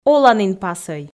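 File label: "оланінпасӧй", Komi, female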